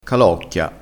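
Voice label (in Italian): "calocchia", vocabolario dialettale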